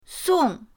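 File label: "song", Mandarin pinyin, fourth tone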